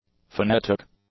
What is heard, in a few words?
"phonetic", english; adjectives